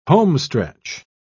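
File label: "homestretch", British English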